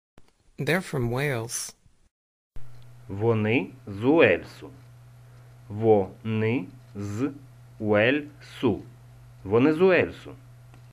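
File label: Ukrainian They are from Wales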